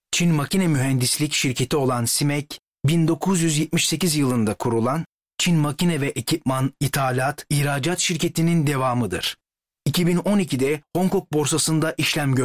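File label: Turkish, Corporate; GoLocalise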